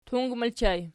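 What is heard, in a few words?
"tung" ergative plural, Archi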